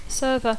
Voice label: "server", English

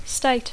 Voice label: "state", English

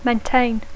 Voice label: "maintain", English

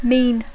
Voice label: "mean", English

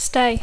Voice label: "stay", English

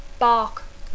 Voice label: "bark", English

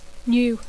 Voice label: "new", English; adjectiu; nou; novell

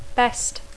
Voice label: "best", English

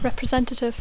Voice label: "representative", English

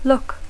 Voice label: "look", English

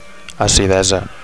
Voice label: "acidesa", Catalan; (f) acidity

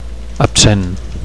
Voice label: "absent", Catalan; missing; separated; inattentive

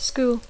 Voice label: "school", English

